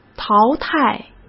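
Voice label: "淘汰", Mandarin Chinese; chinese flashcards; hsk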